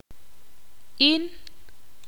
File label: ixil; yo